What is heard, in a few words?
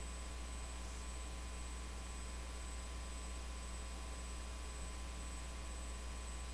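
"natural", spoken word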